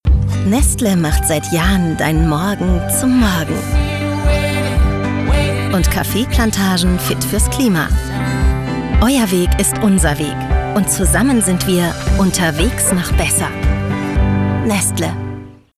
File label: Commercial nahbar ehrlich; Nestle Nescafe